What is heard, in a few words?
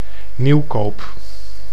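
"Nieuwkoop", Dutch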